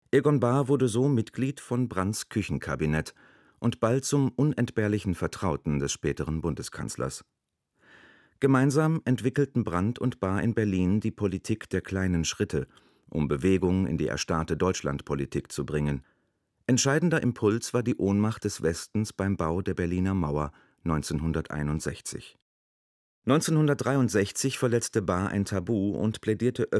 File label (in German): Deutschlandfunk Kalenderblatt Kommentar